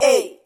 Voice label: "ay", voice